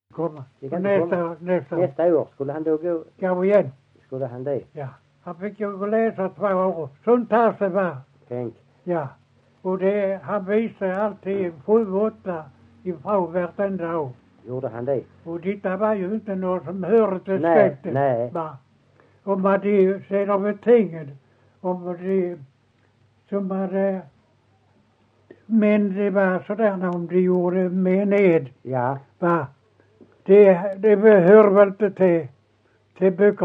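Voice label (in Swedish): Ekeby Skåne, dialekter, svenska sagor; Folkminnen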